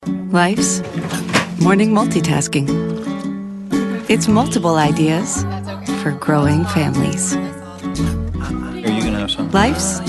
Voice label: Voiceover, Commercial, Women; New York; Commercial Demo

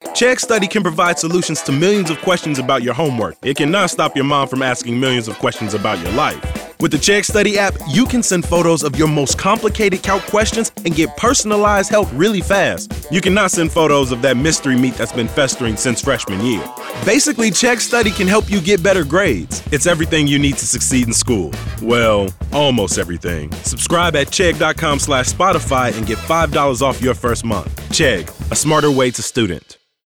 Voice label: Voiceover, Commercial, Men; Chicago

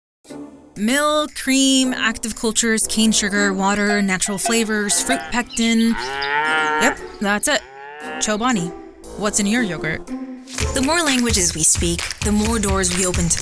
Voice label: voiceover, commercial, women; New York

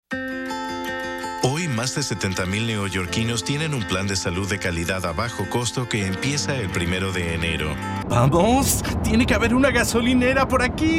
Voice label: Voiceover Foreign Language Spanish; New York

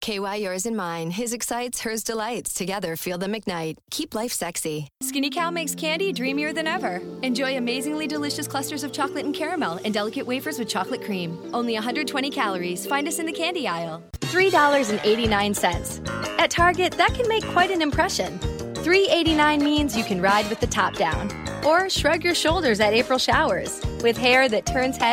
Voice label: voiceover commercial women; new york

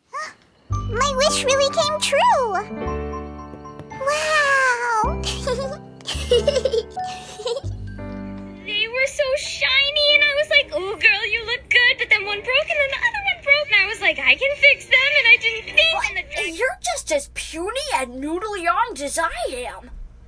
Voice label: Voiceover Animation; New York; Character Demo

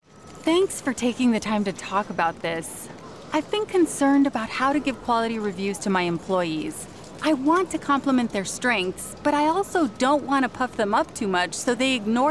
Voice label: Voiceover Commercial Women; new york

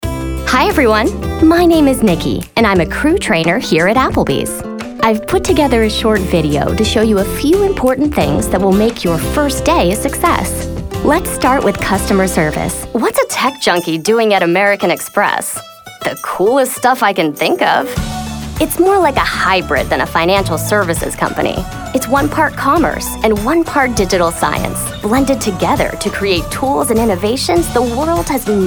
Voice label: voiceover foreign language; new york; spanish

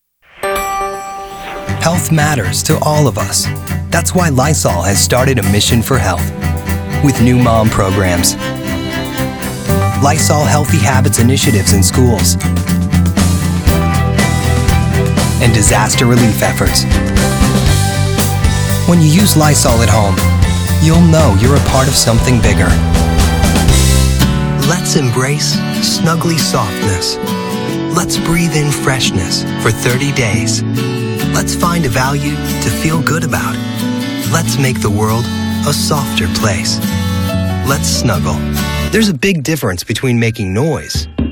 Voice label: Voiceover Commercial Men; New York; Commercial Demo

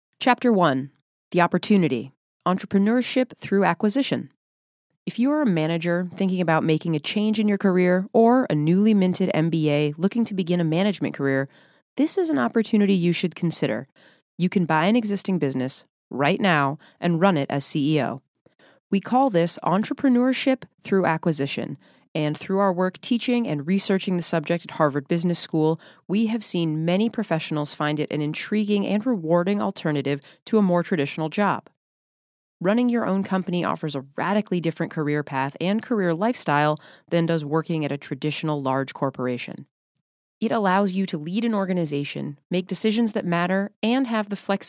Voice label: Voiceover, Audio Books; new york

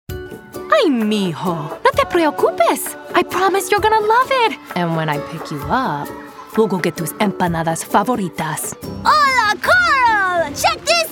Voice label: Voiceover Animation; New York

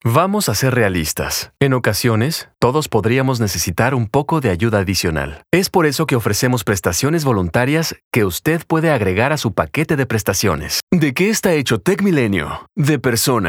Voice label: voiceover foreign language spanish; New York

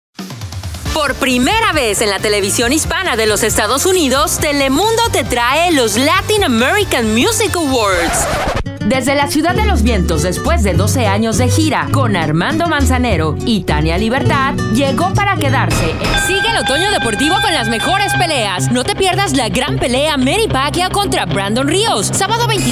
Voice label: Voiceover, Foreign Language, Spanish; Chicago; Spanish Demo

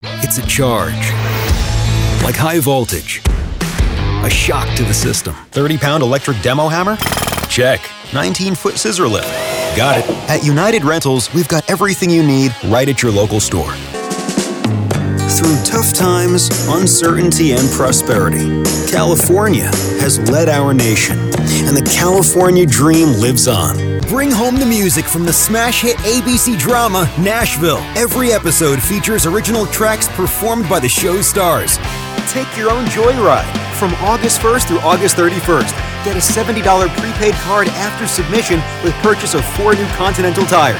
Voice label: voiceover animation; New York

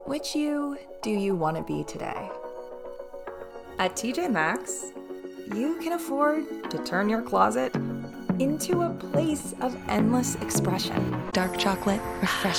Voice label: Voiceover Audio Books; new york

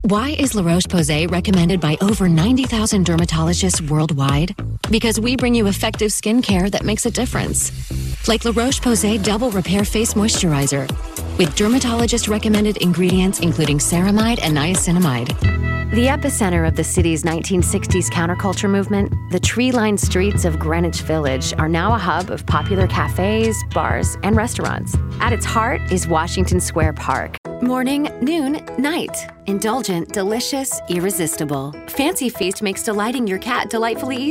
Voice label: voiceover promos network cable; women; new york

